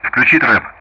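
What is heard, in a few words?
Speech Sound Russian; DCS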